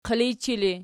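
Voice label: "qilijchi" ergative, Archi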